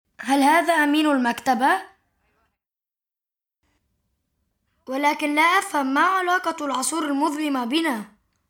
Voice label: arabic m kid narration; voice demos

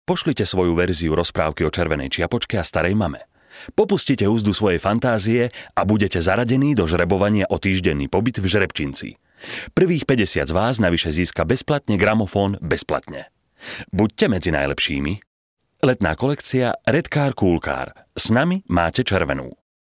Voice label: slovak m narration

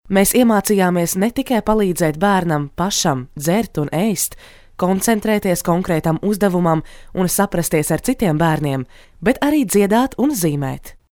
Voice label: latvian f narration, latvian voice demos

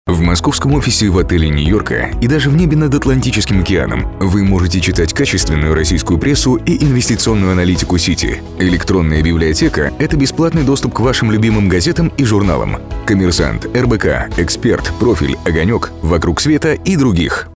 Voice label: russian m commercial

